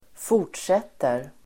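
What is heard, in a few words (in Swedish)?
"fortsätter", Swedish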